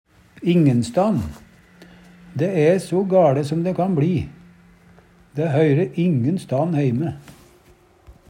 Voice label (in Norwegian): ingenstan, Numedalsmål; Adverb